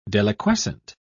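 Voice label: "deliquescent", British English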